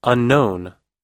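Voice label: "unknown", American English; unknown /ʌ̀nóun; 未知の、不明の、未詳の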